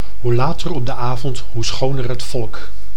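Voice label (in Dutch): hoe later op de avond hoe schoner het volk, Dutch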